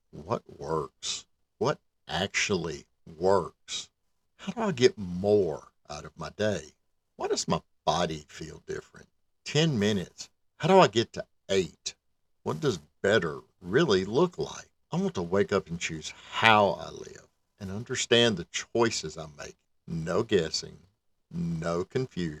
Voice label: Voice Over Casting - Supplement Brand Internal Video Voice Sample